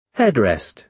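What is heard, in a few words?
"headrest", British English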